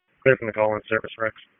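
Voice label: EMS dispatch; wake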